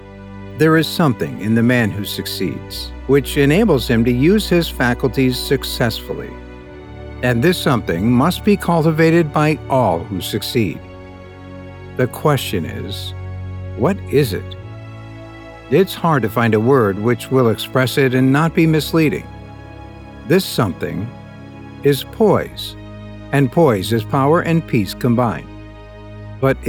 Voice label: Conversational